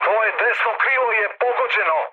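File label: Serbian right wing mood med; War Thunder; speech srb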